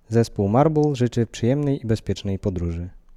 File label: Polish; kde; marble